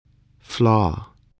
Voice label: "flaw", spelling

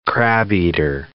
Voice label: "crabeater seal", English

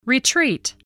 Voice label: "retreat", English

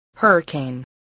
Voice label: "hurricane", voice spelling

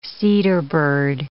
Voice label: "cedarbird", English

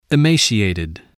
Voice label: "emaciated", spelling

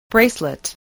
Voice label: "bracelet", spelling

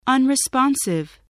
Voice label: "unresponsive", English spelling voice

